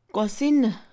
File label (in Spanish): Mixe, Mujer; cocina; Casa; INALI